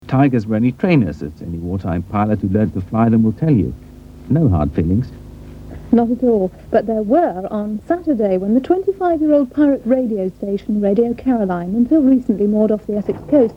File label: news poor copy tv audio; anglia